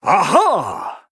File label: Male Human; Antonidas; Jaina